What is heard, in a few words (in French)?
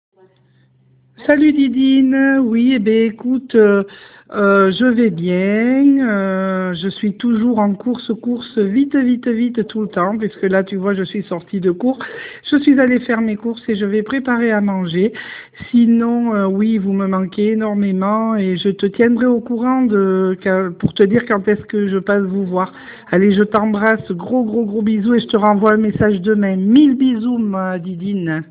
Message vocal